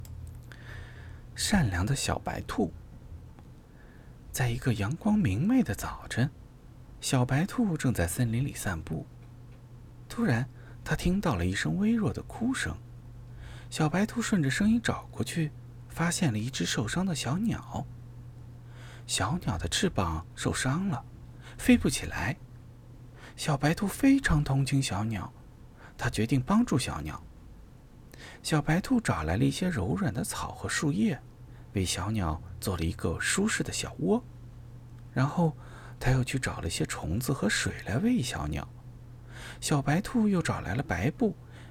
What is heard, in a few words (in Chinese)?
儿童故事